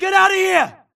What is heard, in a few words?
grunt grenade response; imc; Titanfall 2